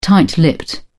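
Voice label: "tight lipped", British English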